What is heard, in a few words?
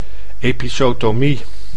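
"episotomie", Dutch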